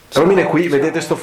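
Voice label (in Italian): "CIAO CIAO", EVP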